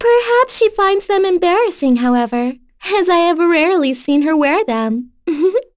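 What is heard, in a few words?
vox dia, voices heroes English; kingsraid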